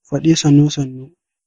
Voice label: slowly hausa

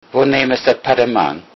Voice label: toilet, low saxon

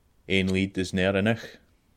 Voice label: one language scots

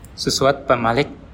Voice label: say again, bonggi

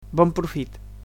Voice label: "bon appetit", Catalan

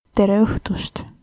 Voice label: good evening, estonian

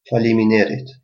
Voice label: thanks, albanian gheg

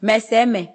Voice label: "understand", Ewe

